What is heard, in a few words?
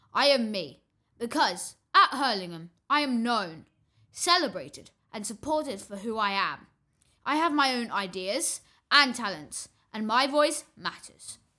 Year 6 Boy I am ME